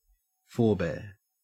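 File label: "forbear" Australian English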